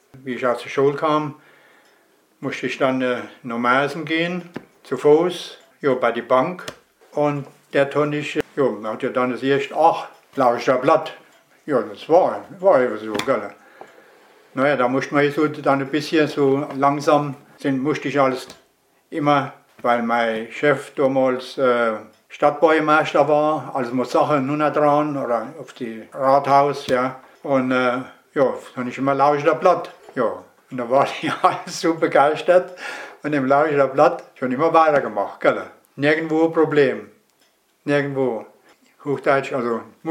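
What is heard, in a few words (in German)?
platt im beruf, O-Ton Hunsrück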